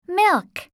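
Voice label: "milk", English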